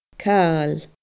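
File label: "cail", Scottish Gaelic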